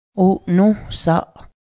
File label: onion, Cayuga